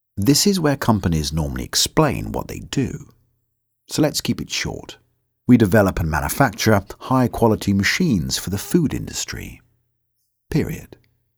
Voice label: Corporate Read

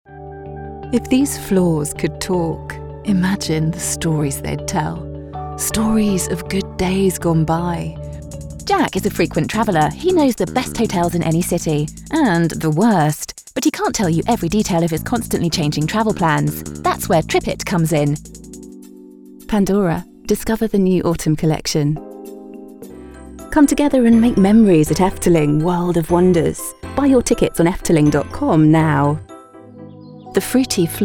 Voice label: Commercials

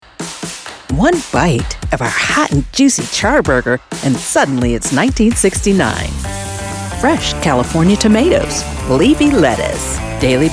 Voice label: Commercial

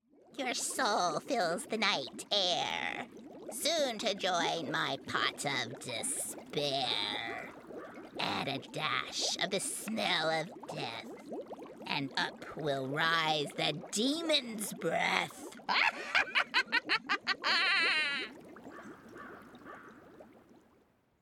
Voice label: Witch

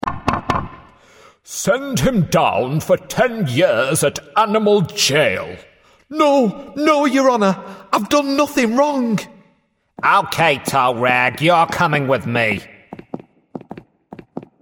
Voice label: Animation

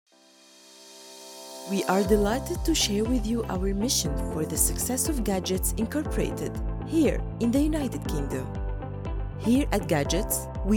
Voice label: English Corporate Narration

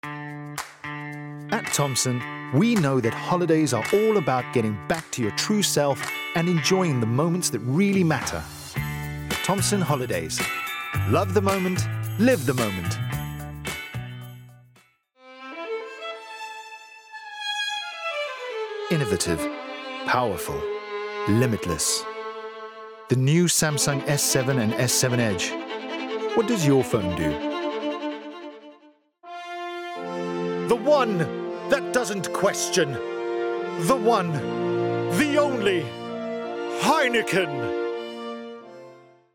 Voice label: Commercial Montage Reel

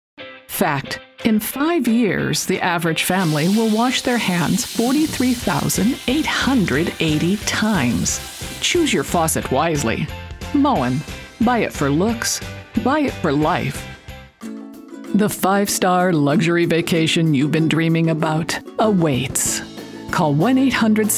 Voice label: Commercial Demo

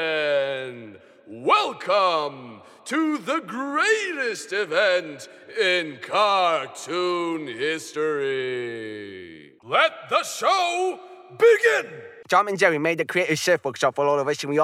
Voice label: Character Animation Voice

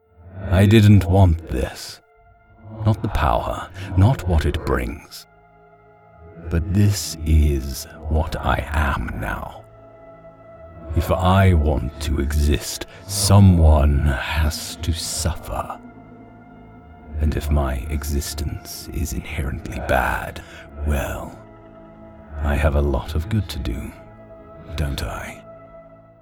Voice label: Character

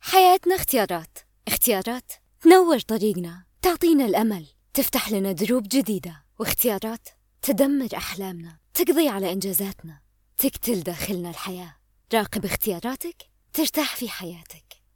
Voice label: Saudi sample

